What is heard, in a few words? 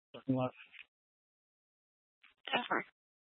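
Tempe police department, scanner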